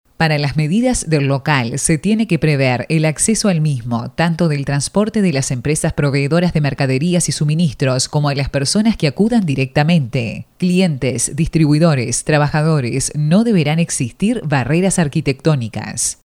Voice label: locutora uruguaya uruguayan voiceover; locutora de Uruguay